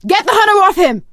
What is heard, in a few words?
hunter pounced, survivor voice; Left 4 Dead 2; Source Engine; video games; producer